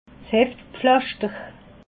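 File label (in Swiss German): "heftpflaschter", Alsatian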